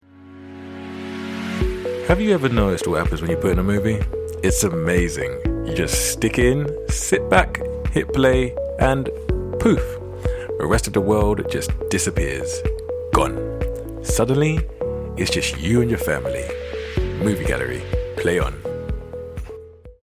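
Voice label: British English, Commercial; GoLocalise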